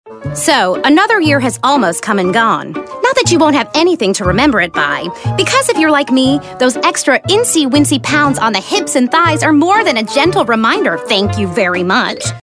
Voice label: Gentle Reminder